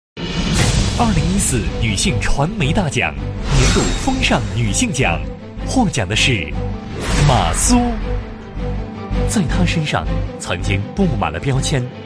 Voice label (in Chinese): 男 颁奖; 2014女性传媒大奖马苏